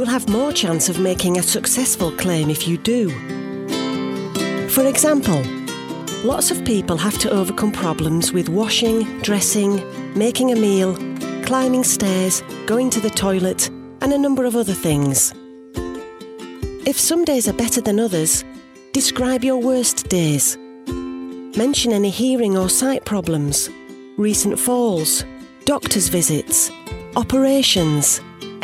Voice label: explainer attendance allowance

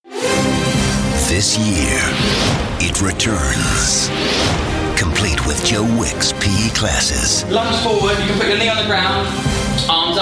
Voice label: character, Engaging Upbeat Friendly Voice

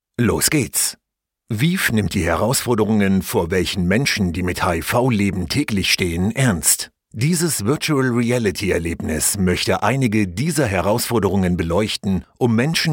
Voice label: German voice VR guide training

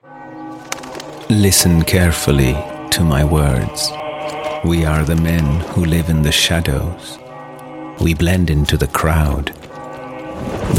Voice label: computer game en

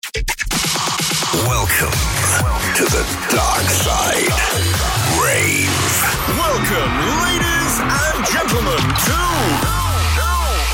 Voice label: edm imaging

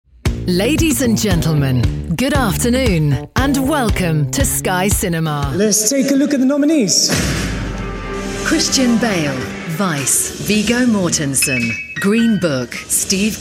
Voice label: voice of god

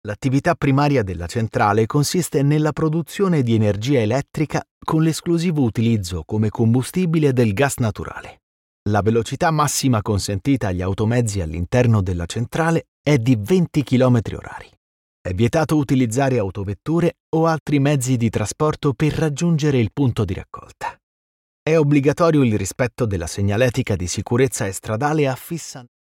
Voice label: italian voice elearning

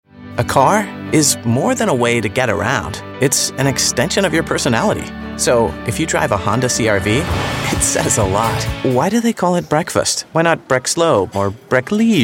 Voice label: american voice commercial

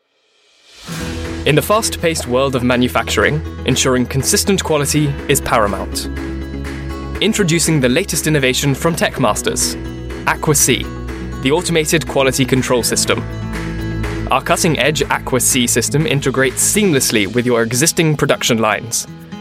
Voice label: explainer

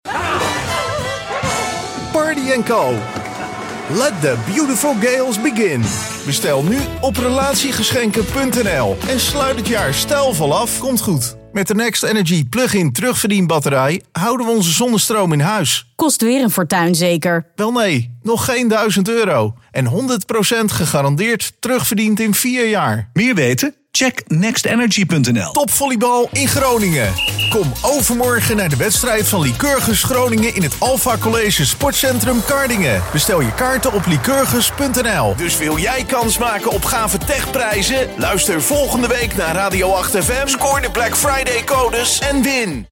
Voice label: Dutch male commercial montage

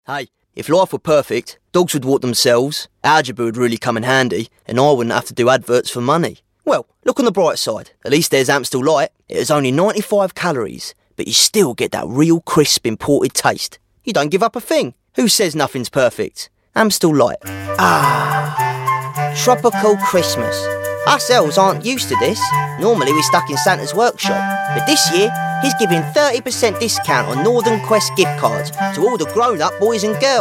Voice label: commercial

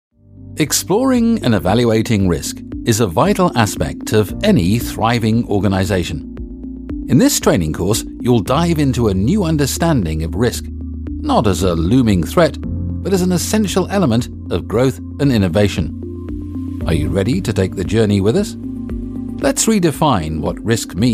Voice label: instructional

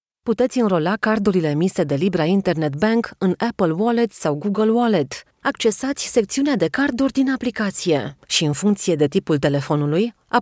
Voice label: romanian ivr

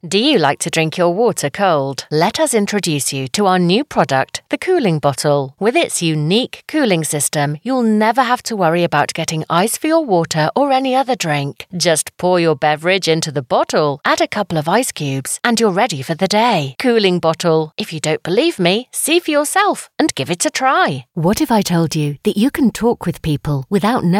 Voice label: corporate

